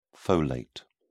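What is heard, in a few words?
"folate", British English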